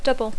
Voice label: "double", English

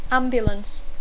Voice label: "ambulance", English

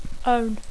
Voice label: "own", English

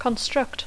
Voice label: "construct", English; construir; verb tr; [build] construir

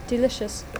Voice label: "delicious", English

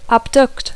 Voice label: "abduct", English

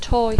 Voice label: "toy", English

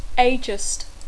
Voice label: "ageist", English

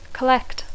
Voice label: "collect", English

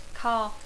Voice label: "car", English

